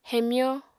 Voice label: "hemyó", apatani